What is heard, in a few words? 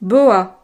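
"boa", French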